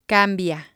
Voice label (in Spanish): "cambia", Spanish